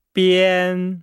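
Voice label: "bian", Chinese; Nihao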